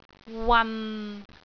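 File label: "wan6", Cantonese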